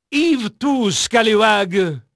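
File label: French male, voice; Pirates Of The Caribbean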